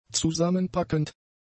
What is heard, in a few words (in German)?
"zusammenpackend", German; adjectives